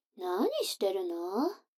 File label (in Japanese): 女の子台詞, ASMR